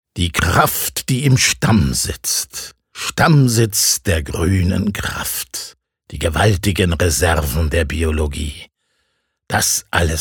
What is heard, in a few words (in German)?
Werbung Kraftvoll